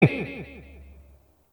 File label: time left 1 min, announcer; tf2cciv; open fortress